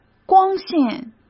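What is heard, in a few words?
"光线" Mandarin Chinese; hsk; chinese-flashcards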